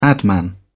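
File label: "adman", British English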